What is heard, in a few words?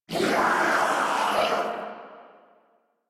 Death Sniper, en